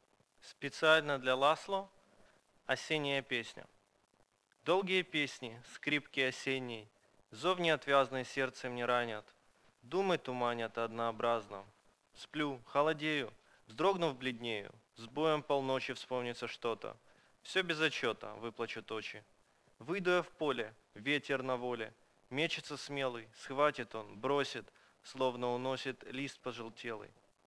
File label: verlaine russian